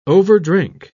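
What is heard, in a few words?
"overdrink", British English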